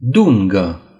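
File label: "stripe", Romanian; gcompris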